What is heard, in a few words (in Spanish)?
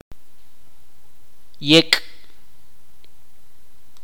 grasa, itza